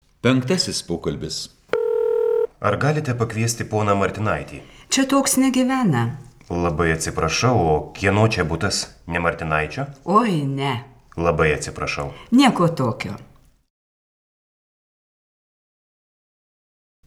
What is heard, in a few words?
Dialog